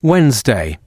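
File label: "wednesday", male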